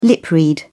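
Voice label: "lip-read", British English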